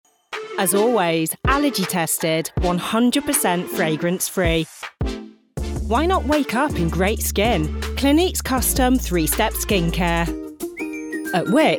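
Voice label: British English, female, commercial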